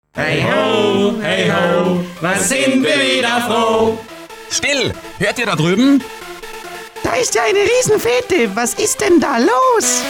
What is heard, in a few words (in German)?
WERBUNG Tropikana; Die sieben Zwerge